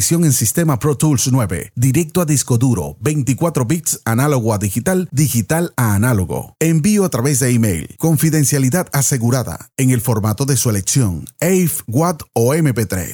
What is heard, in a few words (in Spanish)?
Servicios Voice Over